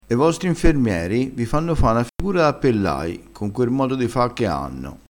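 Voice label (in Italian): "pellaio", dialetto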